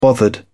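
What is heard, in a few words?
"bothered", British English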